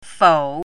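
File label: "fou3", Mandarin Chinese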